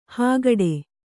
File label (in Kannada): "ಹಾಗಡ", Kannada